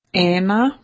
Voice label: "one" Slovenian